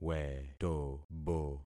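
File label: "wetobo", concatenated syllables; Affixing experiment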